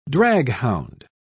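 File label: "draghound", British English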